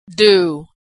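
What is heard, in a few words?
"do", American English; vowel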